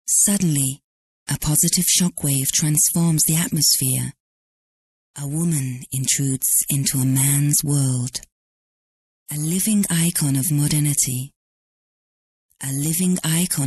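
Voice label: uk englisch, British English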